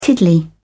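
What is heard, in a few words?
"tiddly", British English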